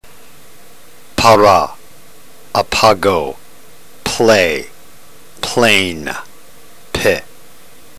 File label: drill, ntgreek